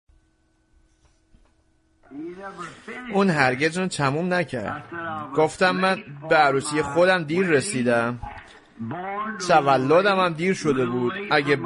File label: Farsi Voice Over; Laodicean Church Age